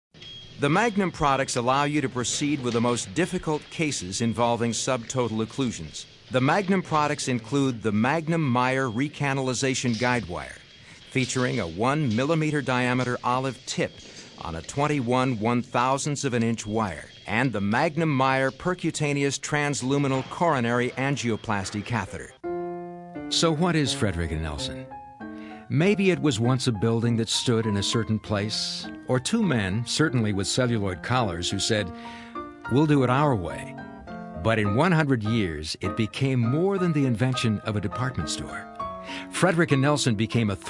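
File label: narration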